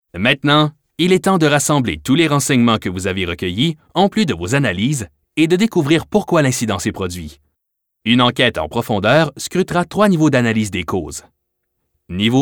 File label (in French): eLearning Securite Travail